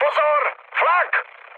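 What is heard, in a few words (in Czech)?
Czech damaged anti-aircraft, mood medium; speech; War Thunder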